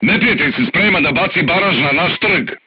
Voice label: voice message art shooting warning, crew tanks serbian